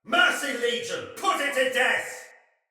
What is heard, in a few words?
See Ally Hit, Soldier Male; Sinecure Soldier Male A